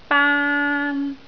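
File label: "baan1", Cantonese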